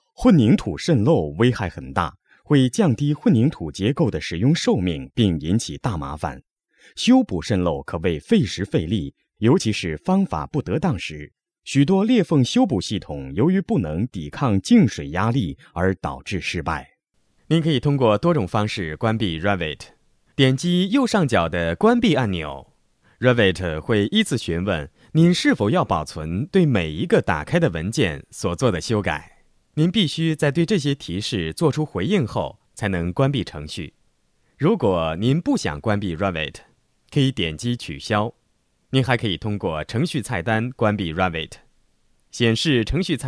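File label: Chinese, narration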